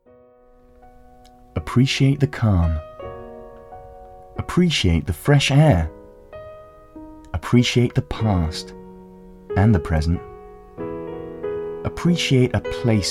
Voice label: tourist board read